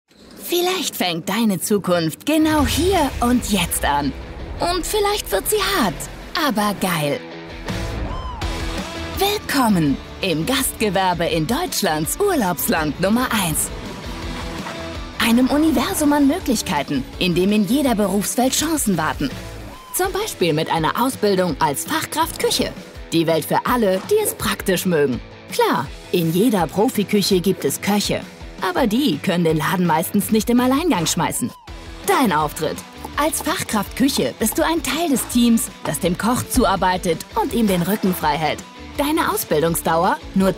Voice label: imagefilm; dehoga

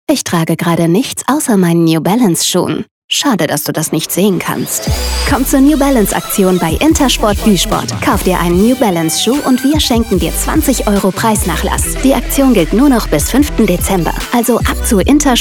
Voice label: funkspot; intersport; Muttersprache Deutsch

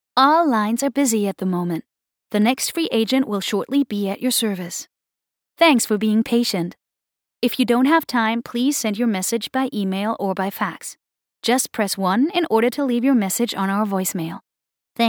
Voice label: Telefonansage English; hell

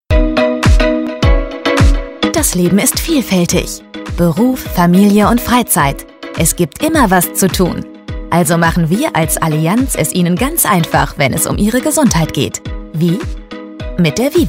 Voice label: erklaerfilm; allianz

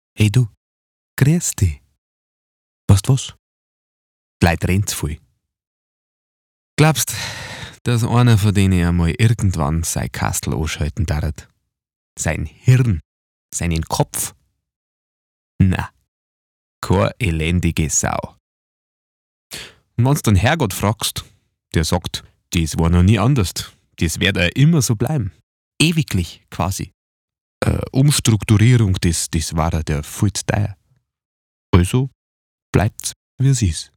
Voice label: urbayrisch

